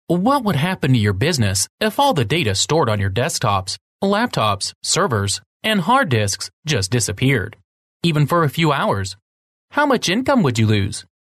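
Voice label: English, US, male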